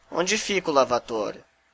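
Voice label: toilet, Brazilian Portuguese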